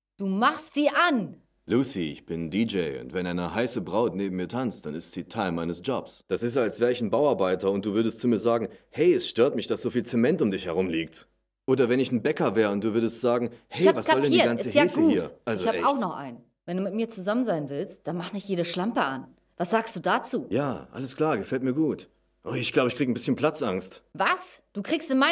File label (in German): Synchron Animationsfilm; Demo Synchron Animationsfilm (Synchronregie: Mario von Jascheroff)